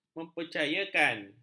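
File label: "mempercayakan", Malay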